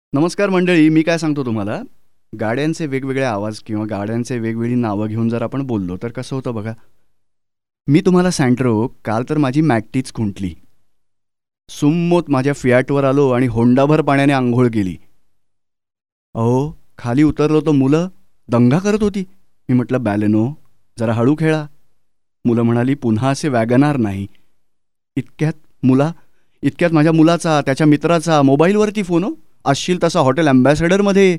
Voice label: Marathi Male

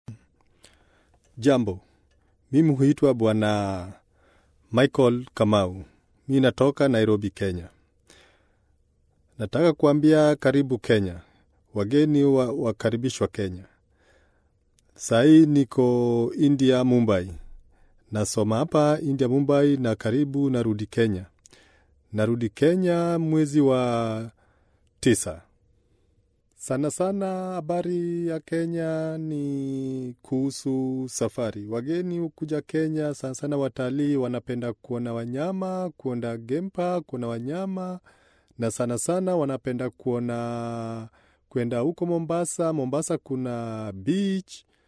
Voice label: Swahili Male

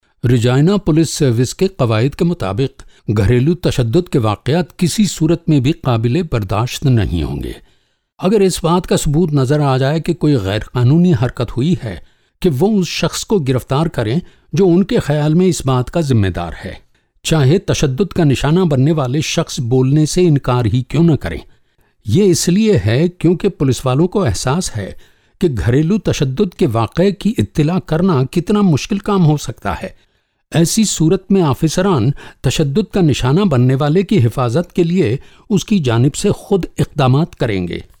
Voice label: Urdu Male

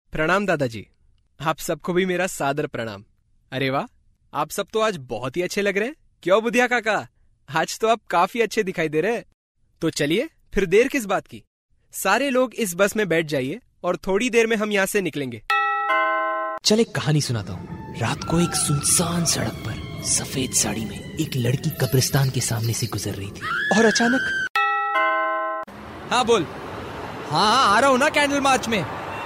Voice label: Hindi Male

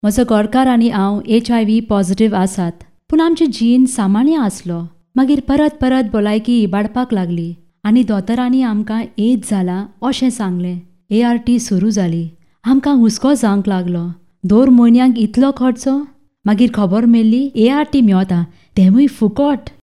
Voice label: konkani female

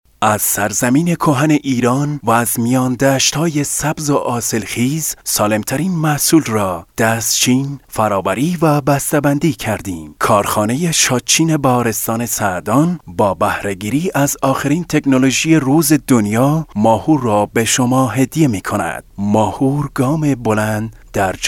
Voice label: farsi male

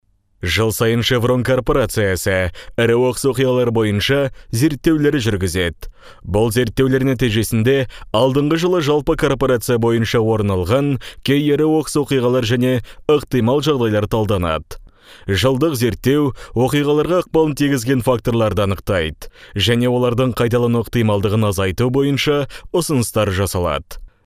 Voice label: kazakh male

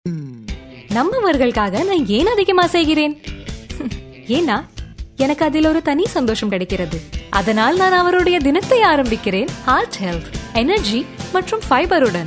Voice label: Tamil Female

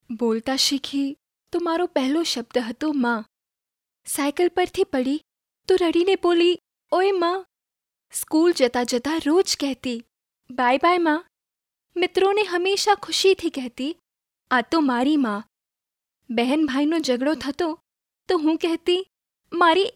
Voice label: Gujarati Female

